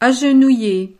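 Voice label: "agenouille", French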